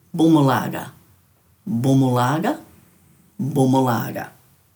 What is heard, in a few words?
"bumulaga", Dhurga